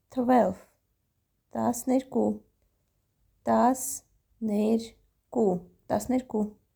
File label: "12 tasnyerku", Armenian